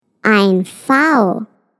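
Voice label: "peacock", German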